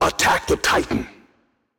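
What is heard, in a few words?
vox attack, male; smite; osiris; voicepack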